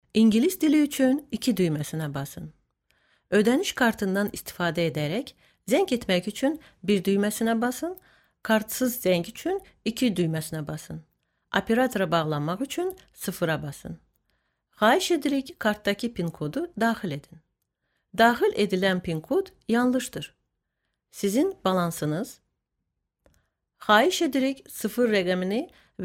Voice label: Azerice Kadin; seslendirme

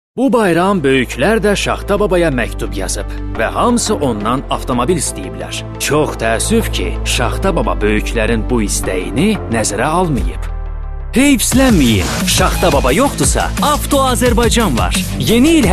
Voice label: Azerice Erkek; seslendirme

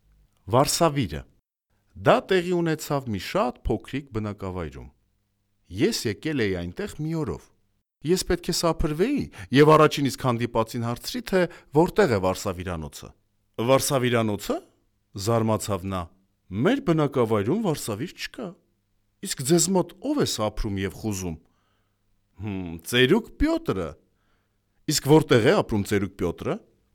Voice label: Ermenice Erkek; seslendirme

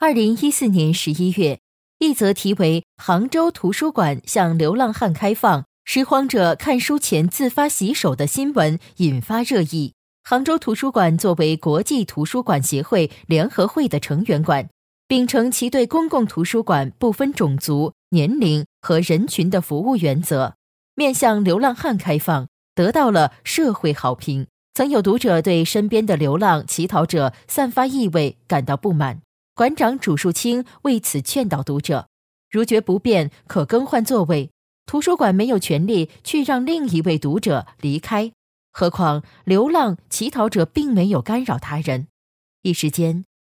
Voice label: Cince Erkek Mandarin Cin Simplified; pekin seslendirme

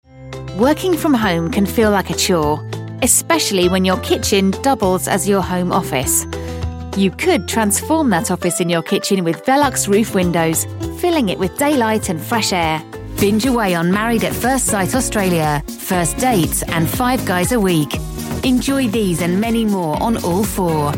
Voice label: ingiliz ingilizcesi Kadin; seslendirme

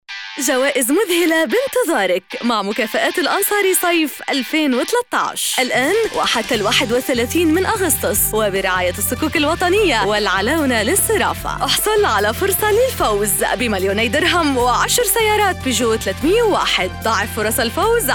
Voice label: Arapca Kadin Klasik Arapca Lubnanli; kadin sesler; seslendirme

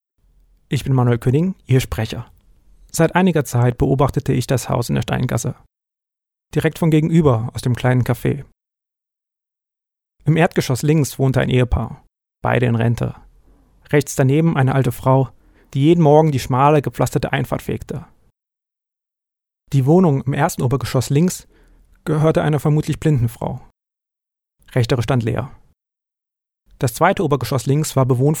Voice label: Almanca Erkek; seslendirme

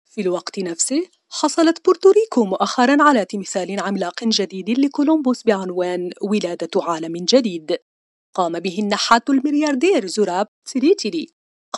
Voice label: Arapca Kadin Klasik Arapca, seslendirme; kadin sesler

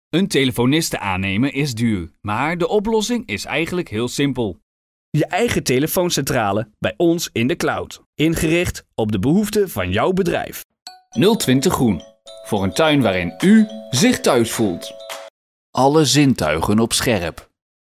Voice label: Hollanda Erkek; hollandaca; seslendirme

